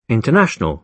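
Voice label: "international", British English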